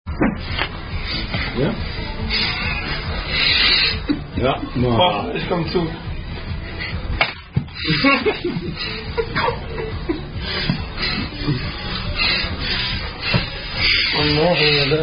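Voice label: Einer Würfeln, German Dice Drinking Game (Trinkspiel)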